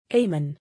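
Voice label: "ayman", male, Arabic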